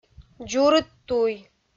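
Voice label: "дзурыд туй", Komi, female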